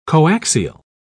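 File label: "coaxial", British English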